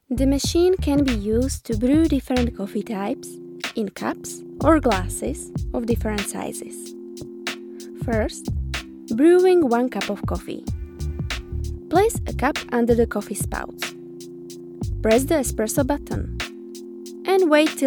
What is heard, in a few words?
English, Slovak, Female, Teens-20s; Eastern European; Czech